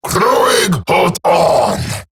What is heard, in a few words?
Cover Me Eximus Grineer Male; Battle Barks